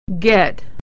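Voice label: vowel e "get", pronunciation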